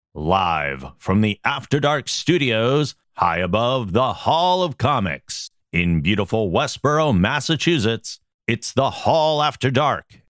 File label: promo; The Hall After Dark